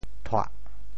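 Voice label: "thuah4", Teochew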